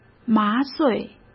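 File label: "麻醉", Mandarin Chinese; chinese flashcards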